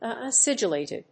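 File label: "acidulated", English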